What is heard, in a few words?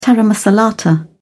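"taramasalata", British English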